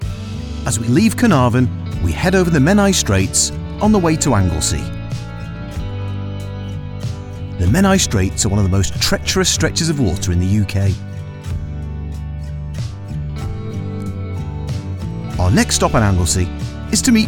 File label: Narration